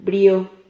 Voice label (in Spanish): "brio", Silabas